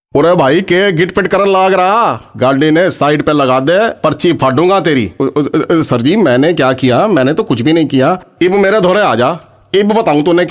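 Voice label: COMMERCIAL MIMICKERY HARYANVI STYLE HINDI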